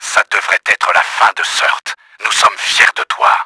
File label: VICTORY, french; Battle Engine Aquila